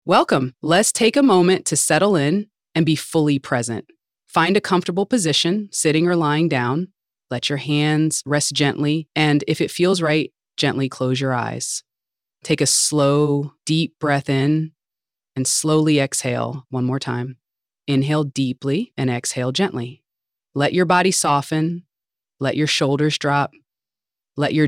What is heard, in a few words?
Guided meditation